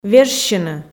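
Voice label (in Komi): "вежсьыны", Komi, female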